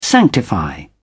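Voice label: "sanctify", British English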